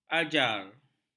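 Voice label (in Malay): "ajar", Malay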